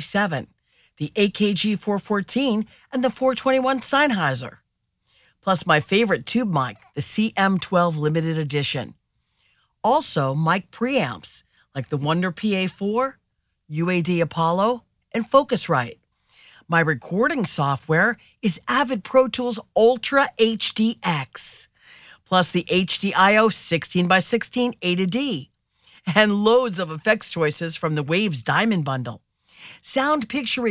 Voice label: studio quality dry read